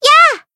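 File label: Voice attack Japanese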